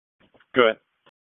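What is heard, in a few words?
Sheriff's Office Dispatch 1, p25; wake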